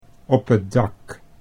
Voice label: "op 't dak", Dutch

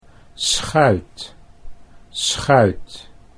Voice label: "schuit", Dutch

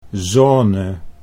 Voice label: "zone", Dutch

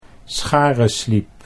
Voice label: "scharensliep", Dutch

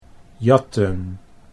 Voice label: "jatten", Dutch

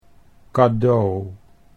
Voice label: "cadeau", Dutch

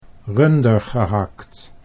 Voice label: "rundergehakt", Dutch

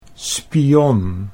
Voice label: "spion", Dutch

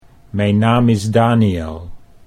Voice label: mijn naam is, Dutch

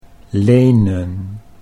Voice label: "lenen", Dutch

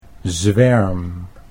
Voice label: "zwerm", Dutch

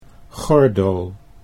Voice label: "gordel", Dutch

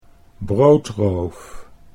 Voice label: "broodroof", Dutch

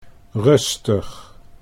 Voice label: "rustig", Dutch

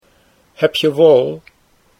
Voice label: heb je wol, Dutch

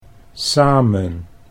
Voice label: "samen", Dutch

